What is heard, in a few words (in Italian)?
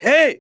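"ehi", Italian; tono di notifica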